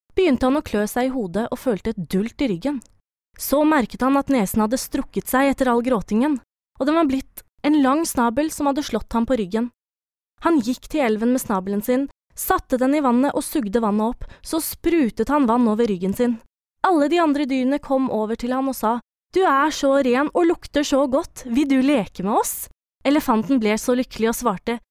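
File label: Norwegian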